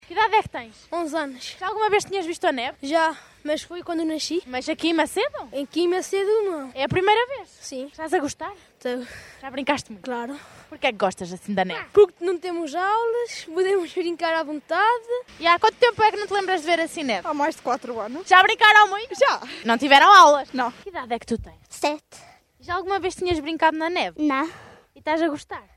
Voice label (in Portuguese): vox pop crianças